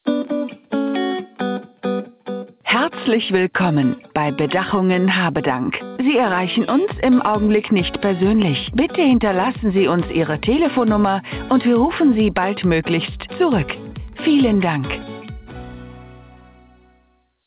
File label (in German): Besprechung, alaw; Bedachungen Habedank